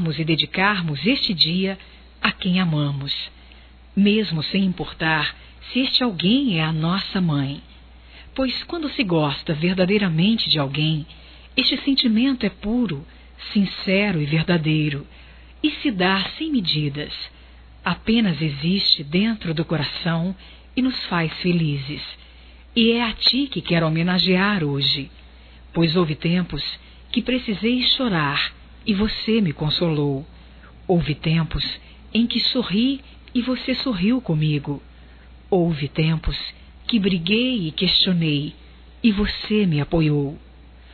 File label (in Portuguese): filha envia, telemensagens, Dia das Mães; Telemensagens 2017